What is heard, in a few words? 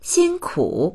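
"xin1ku3", Mandarin Chinese; 辛苦; 骨が折れる、苦労する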